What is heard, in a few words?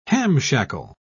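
"hamshackle", British English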